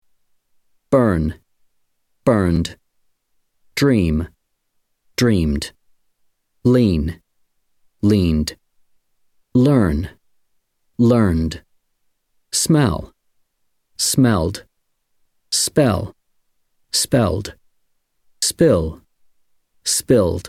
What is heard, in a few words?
British English